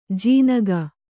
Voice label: "ಜೀನಗ", kannada